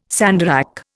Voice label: "sandarac", Indian